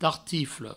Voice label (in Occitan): "tartifle", provençal; vocabulaire fondamental du provencal